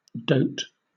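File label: "dote", British English; pronunciations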